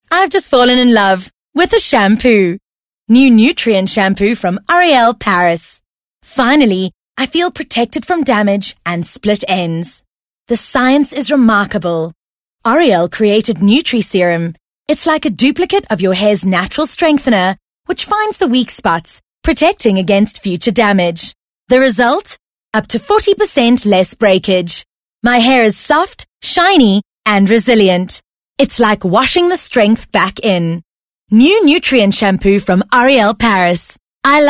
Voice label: English bright